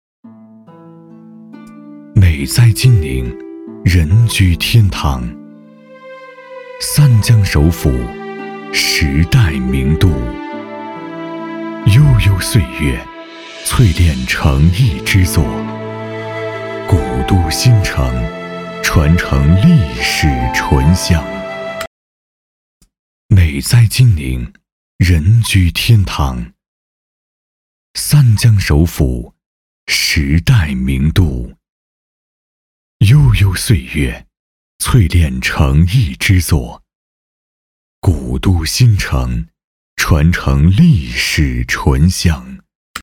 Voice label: B男 专题; 介绍紫陶坊公交车

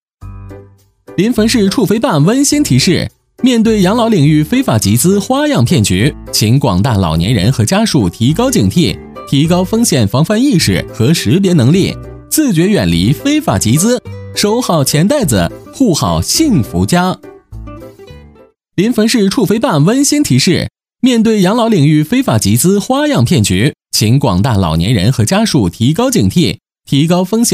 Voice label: 男 非法集资; 飞碟说MG

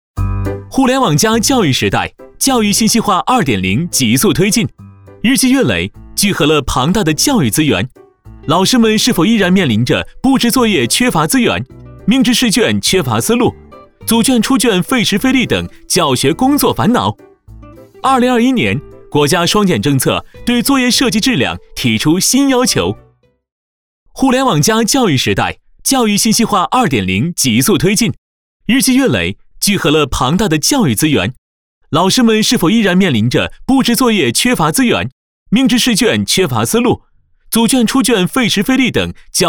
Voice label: 互联网教育时代, 男; 飞碟说MG

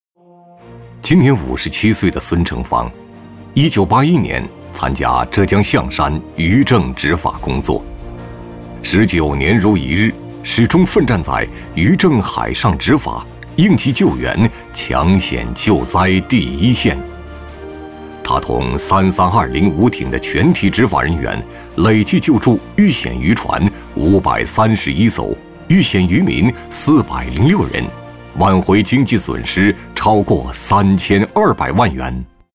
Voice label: 男, 宣传片-先进党员

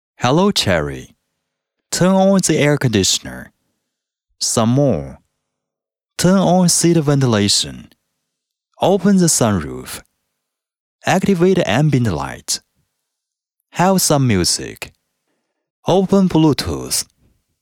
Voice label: 英语专题片 汽车提示音, 男; 奇瑞

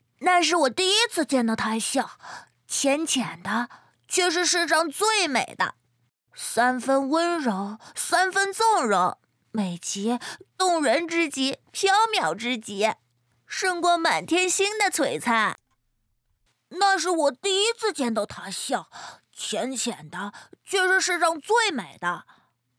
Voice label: 女, 角色-男童声

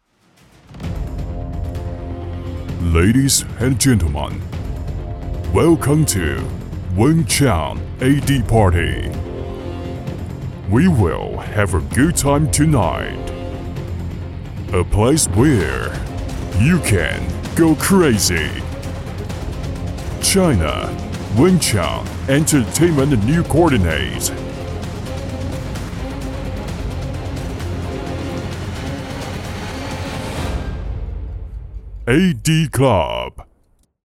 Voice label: 男 英语 酒吧开场; A-·D-Club

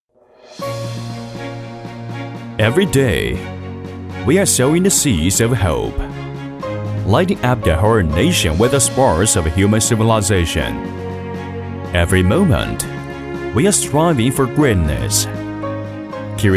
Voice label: 男 英语 大气专题; 中国电建甘肃能源公司

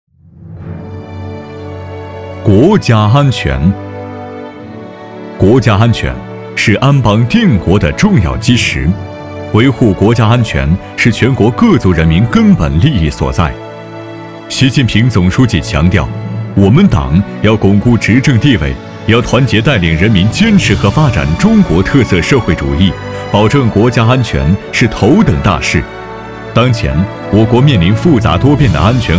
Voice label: 男 宣传片 医科大

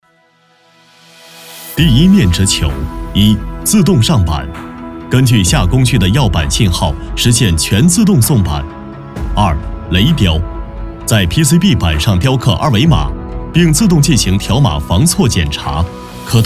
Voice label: 男, 专题课件工业流程讲解; 德赛西威SiP车间

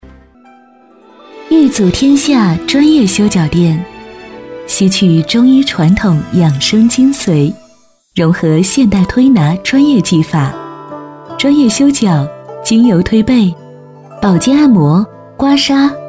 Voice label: 女 广告 成熟大气; 御足天下

